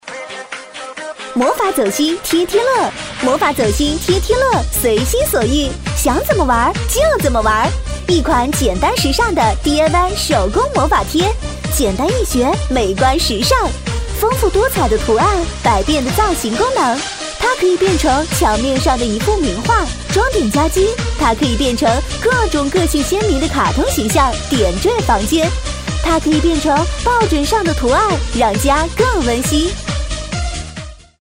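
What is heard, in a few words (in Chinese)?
广告 可爱, 女, 普通话; 家居家电; 贴贴乐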